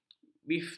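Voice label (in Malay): "bifstik", Malay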